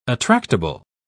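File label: "attractable", British English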